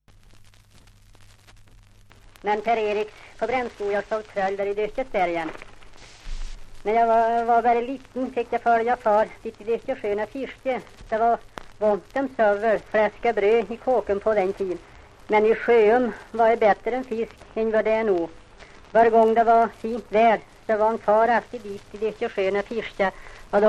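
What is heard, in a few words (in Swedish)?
Linsäll Hälsingland, dialekter; svenska sagor; Folkminnen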